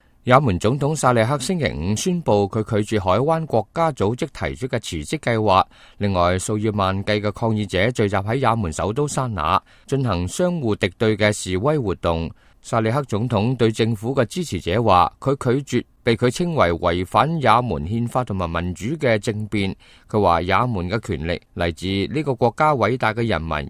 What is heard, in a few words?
cantonese, news